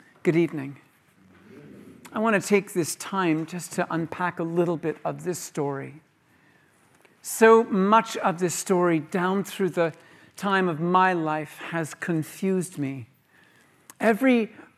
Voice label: Sermon, Christmas Eve